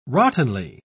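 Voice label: "rottenly", British English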